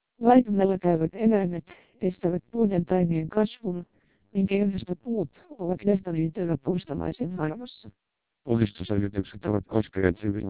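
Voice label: TWELP 400 bps Vocoder, Finnish speech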